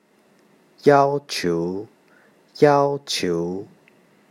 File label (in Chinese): "要求", yaoqiu